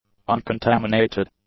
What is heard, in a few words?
"uncontaminated", English; adjectives